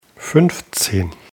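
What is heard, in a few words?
"fünfzehn", German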